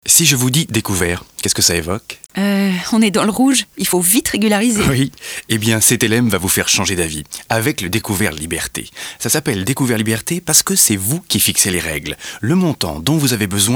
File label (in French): Pub Radio; CETELEM